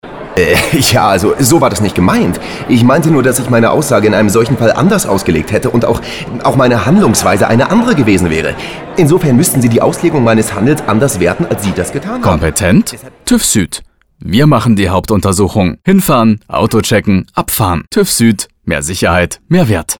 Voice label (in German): Politiker männlich